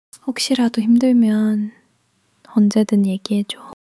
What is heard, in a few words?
sadness